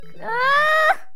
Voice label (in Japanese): ダメージボイス; 悪魔城ドラキュラ(FC版)